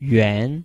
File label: "yuan2", Chinese